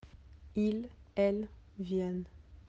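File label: "venir", French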